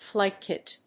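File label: "flight kit", English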